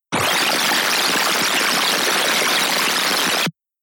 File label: Fast Forward Speech Effect Spooling